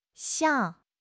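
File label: "xiang4", Mandarin Chinese; HSK3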